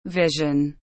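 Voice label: "vision", English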